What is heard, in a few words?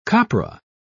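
"copra", British English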